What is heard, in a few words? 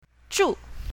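"zhu4", Chinese pinyin, south, female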